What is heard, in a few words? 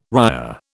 "ria", British